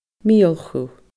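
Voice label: "miolchu", Scottish Gaelic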